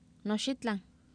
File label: noxitlan, Oapan minimal pairs female; Oapan minimal pairs 1998